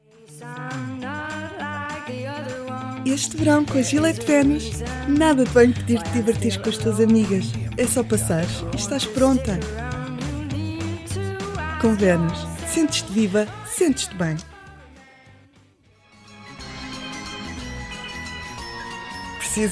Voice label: promos portugese